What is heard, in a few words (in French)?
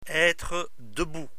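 "etre debout", French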